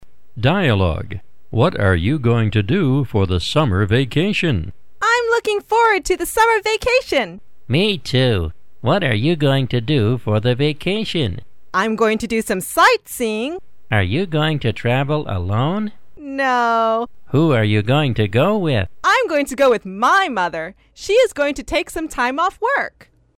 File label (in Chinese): 外籍男, 男女声课件, 女声